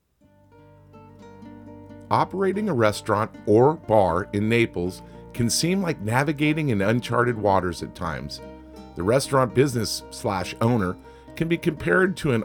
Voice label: text voice and music; restaurants and bars